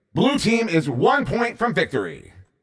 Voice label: One Pt From Victory Blue 2 Flags, announcer